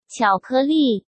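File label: "巧克力", Mandarin Chinese; hsk; chinese-flashcards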